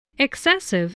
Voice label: "excessive", voice, english

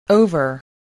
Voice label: "over", English voice

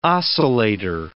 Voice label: "oscillator", English word

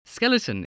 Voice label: "skeleton", voice, spelling

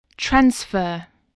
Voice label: "transfer", voice

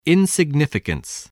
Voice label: "insignificance", voice spelling

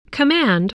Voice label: "command", voice

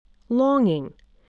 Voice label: "longing", spelling

